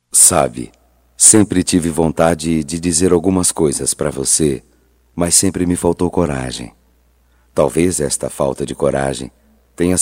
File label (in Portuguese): Romântica Masculino; Telemensagens; Só Voz; Telemensagens 2017